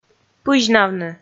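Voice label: "пызьнавны", Komi, female; kpv audio dict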